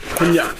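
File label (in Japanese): "konnya", 南山形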